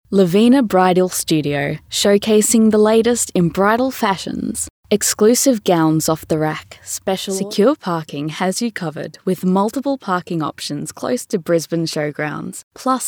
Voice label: Soft Sell